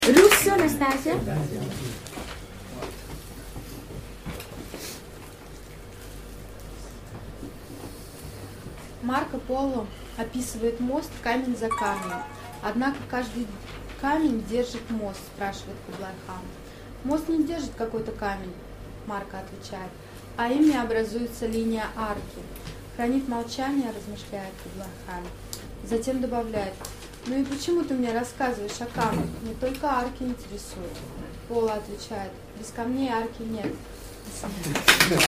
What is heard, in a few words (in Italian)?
Epilogo Russo